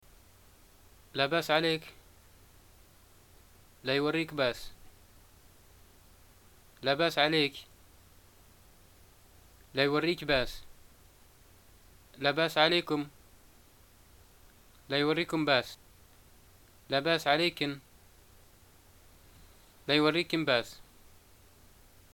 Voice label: greeting get well soon, arabic